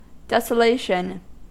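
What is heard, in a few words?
"desolation", American English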